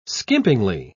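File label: "skimpingly", British English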